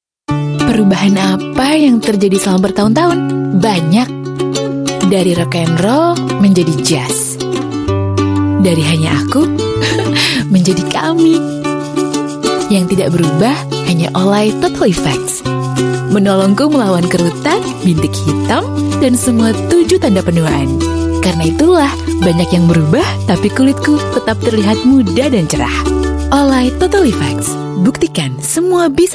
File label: Bahasa Indonesian; Olay